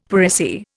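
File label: "per se", Indian English; Dictionary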